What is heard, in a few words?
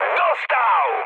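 Polish aircraft destroyed mood high; War Thunder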